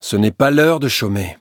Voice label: levelup, fr; Cookie Run